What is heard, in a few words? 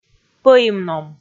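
"пӧимном", Komi, female; kpv audio dict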